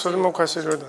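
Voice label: "kasheru", Minamiyamagata dialect Japanese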